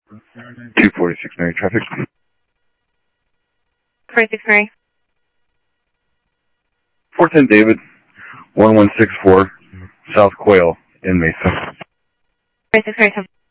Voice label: Sheriff Central, scanner audio; ThompsonPeakScot; MCSO Central